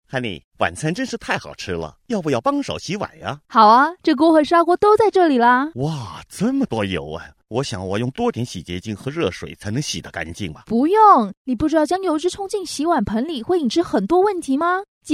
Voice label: Asian Radio Mandarin